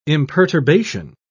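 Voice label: "imperturbation", English, British English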